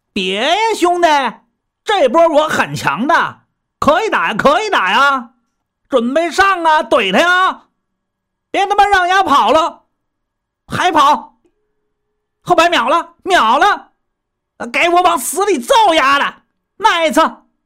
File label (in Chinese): 【方言】北京方言男-对话